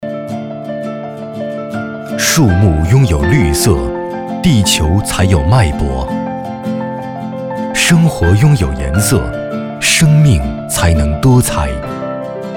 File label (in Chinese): 【企业宣传】舒缓平稳